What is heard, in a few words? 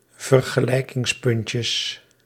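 "vergelijkingspuntjes", Dutch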